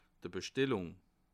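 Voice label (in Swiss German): "bstellung", Alsatian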